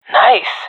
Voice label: sees enemy killed, swat agents; Source Model Packs; Counter Strike Model Pack; CS2; swat epic

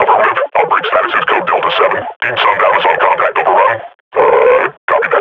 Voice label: idle, grunt

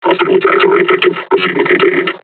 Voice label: taunt, combine charger; Half Life Alyx

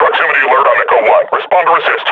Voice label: near panic

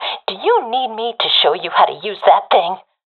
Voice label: taking fire friendly, professional fem; vo; Counter Strike Model Pack; CS:GO

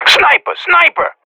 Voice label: radio bot under fire sniper; vo; agents; Source Model Packs; Counter Strike Model Pack; CS2; professional

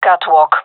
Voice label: loc catwalk, fem; vo

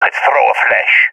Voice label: request flash, balkan; balkan epic

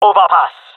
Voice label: "overpass", jungle male; player vo; Counter Strike Model Pack; CS:GO

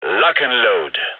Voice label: radio lock n load; vo; gign

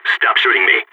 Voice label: friendly fire, balkan; vo

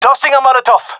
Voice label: throwing molotov, gendermerie male; vo

